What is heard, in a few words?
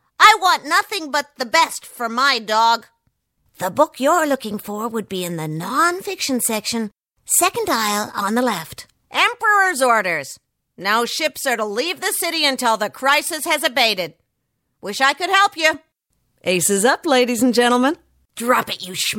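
US English, Cartoon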